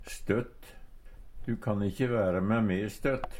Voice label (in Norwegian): støtt, Numedalsmål; stadig; Adverb